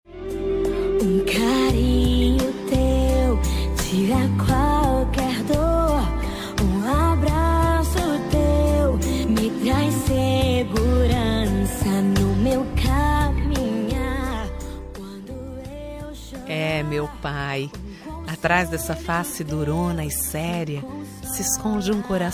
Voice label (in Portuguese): dia dos pais feminina